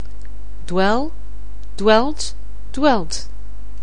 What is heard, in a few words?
"dwell", anglais